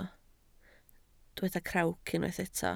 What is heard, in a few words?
"crawc", Welsh